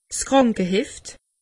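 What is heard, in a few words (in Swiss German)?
"krankeheft", Alsatian; medecine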